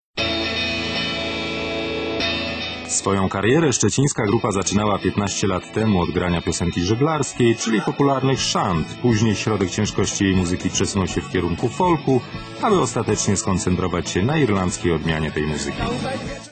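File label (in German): stimmprobe, männer aus aller welt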